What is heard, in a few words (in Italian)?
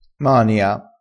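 "mania", dialettale crespinese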